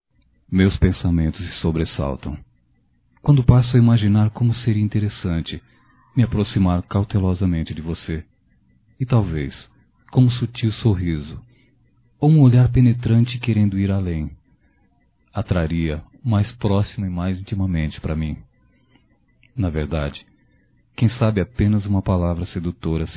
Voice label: Masculino; Vamos Além; Telemensagens 2017; Só Voz

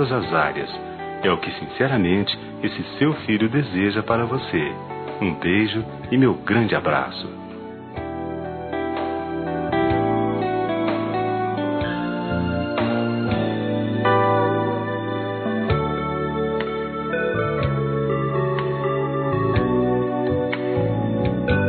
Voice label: NATAL P PAI, masc; Telemensagens; Natal e Ano novo

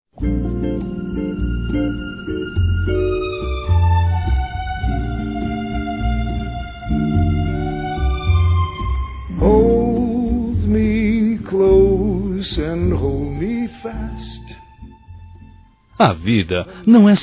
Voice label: Você é Capaz Masculino; Telemensagens; Otimismo

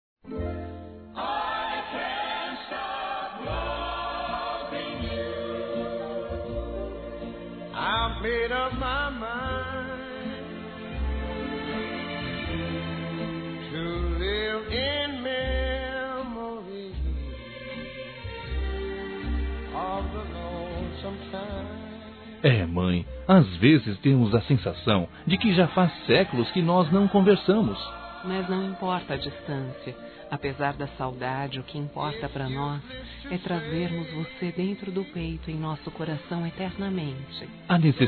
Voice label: Aniversário Mãe Distante DUAS VOZES; Aniversários; Aniv Familiar

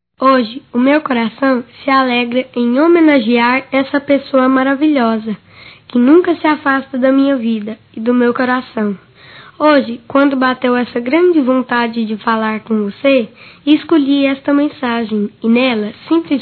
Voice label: voz infantil; Dia das Maes; Telemensagens 2017

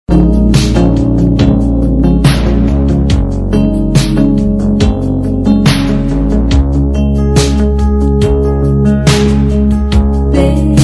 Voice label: ROM AMOR PROIBIDO FEM; Telemensagens

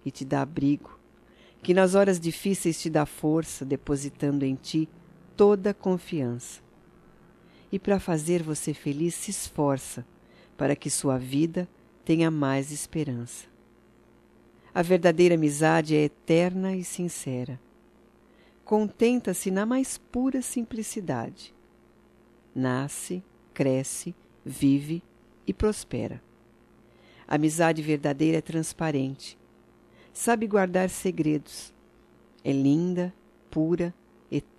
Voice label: DIA DO AMIGO F; Telemensagens 2017; Datas Mistas

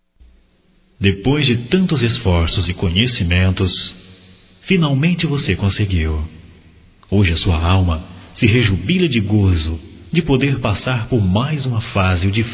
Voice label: Aprovado no Vestibular Masculino, Só Voz; Telemensagens; Telemensagens 2017

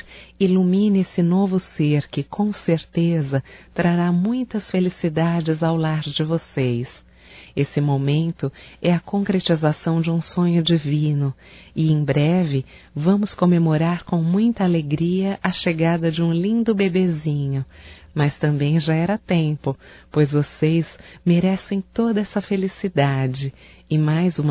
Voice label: Parabéns pela Gravidez Feminino; Telemensagens 2017

